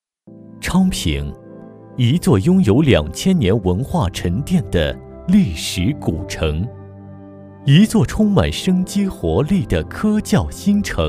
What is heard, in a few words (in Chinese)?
宣传; 昌平